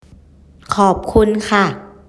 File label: zyosei arigato